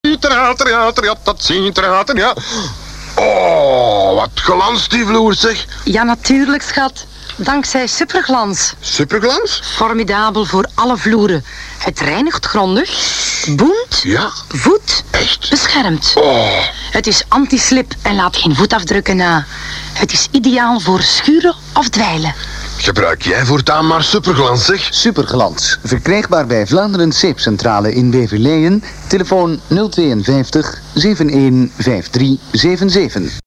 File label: commercial